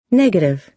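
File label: "negative", American English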